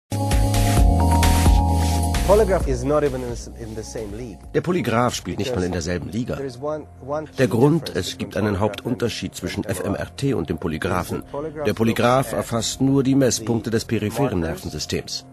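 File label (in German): voice over, männer; Berlin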